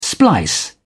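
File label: "splice", British English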